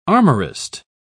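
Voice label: "armorist", British English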